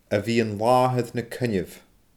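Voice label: "a bhith an laathair na coinneimh", Scottish Gaelic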